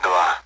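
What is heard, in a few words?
Speech Sound Russian Common AWACS Digits; DCS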